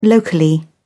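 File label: "locally", English, British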